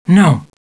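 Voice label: "no", male; TALKNOW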